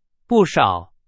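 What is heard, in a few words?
Chinese, vocabulary; hsk2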